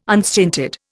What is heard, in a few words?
"unstinted", Indian